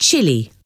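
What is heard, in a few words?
"chilly", English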